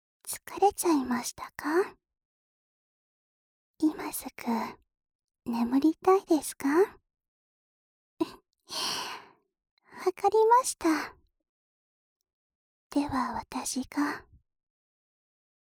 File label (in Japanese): 安眠誘導ボイス, ASMR; 千尋Ver